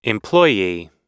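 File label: "employee", American English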